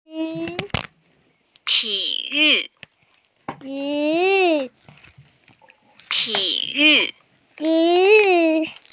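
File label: "sports", Mandarin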